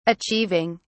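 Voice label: "achieving", British English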